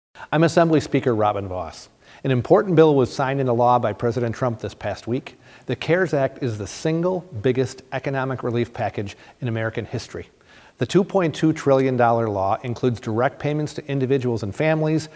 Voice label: Radio